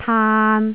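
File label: "taan3", Cantonese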